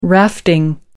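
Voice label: "rafting", British English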